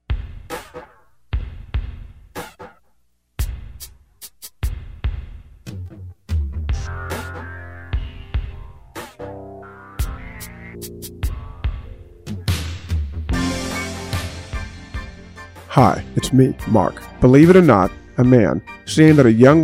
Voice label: PROMO; Fall